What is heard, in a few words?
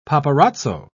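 "paparazzo", British English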